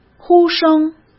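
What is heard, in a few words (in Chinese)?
"呼声", Mandarin Chinese; hsk; chinese-flashcards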